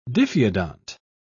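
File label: "diphyodont", British English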